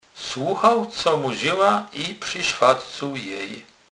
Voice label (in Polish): "przyswadcec", kurpie